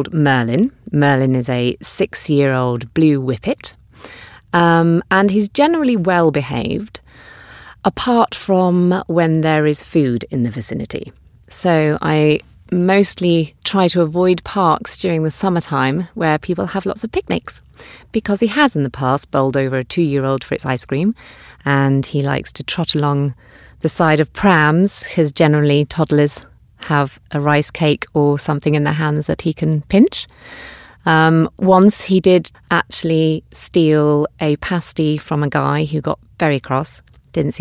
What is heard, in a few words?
Natural